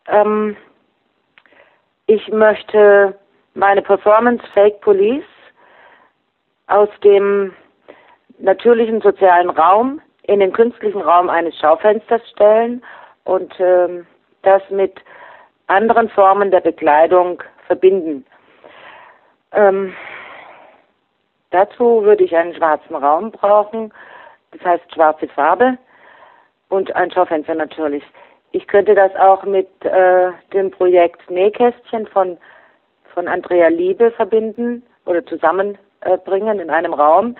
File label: Voicemail